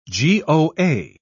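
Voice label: "goa", British English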